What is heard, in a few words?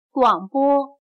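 "广播", Chinese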